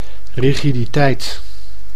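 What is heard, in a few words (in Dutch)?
"rigiditeit", Dutch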